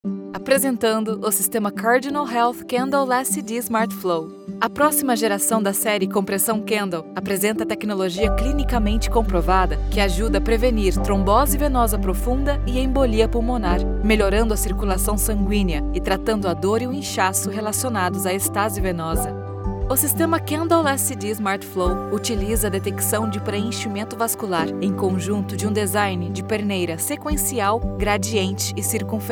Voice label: medical product presentation engaging smiling professional; CARDINALHEALTH; female